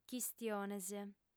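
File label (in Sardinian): "chistiones", female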